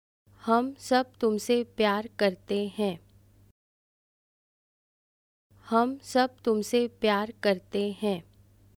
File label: We all love you, Hindi